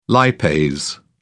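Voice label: "lipase", British English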